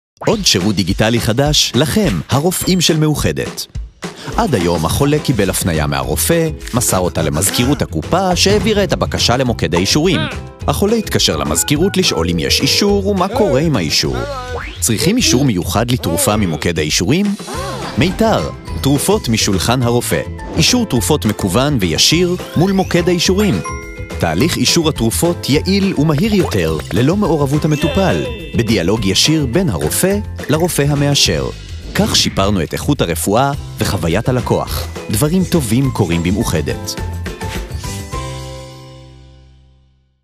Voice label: hebrew corporate videos